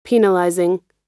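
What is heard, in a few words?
"penalizing", American English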